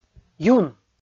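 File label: "юн", Komi, male; kpv audio dict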